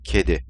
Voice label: Cat in Turkish